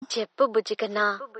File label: Telugu voice Notification; Cheppu Bujji Kanna